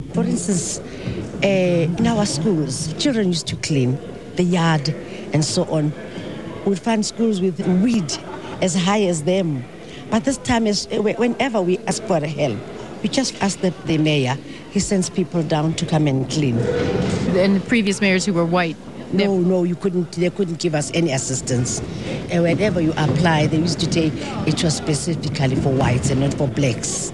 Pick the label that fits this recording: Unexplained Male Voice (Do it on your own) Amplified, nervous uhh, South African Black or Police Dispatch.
South African Black